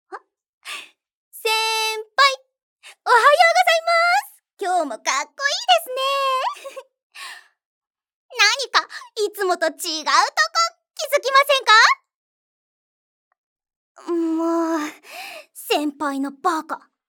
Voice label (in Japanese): 元気な後輩